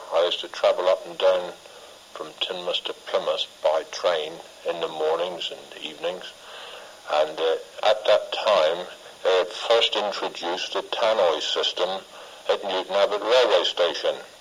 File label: Devon Lower South West, english accents